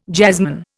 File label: "jazzman", Indian